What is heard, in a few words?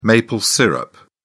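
"maple syrup", English, British English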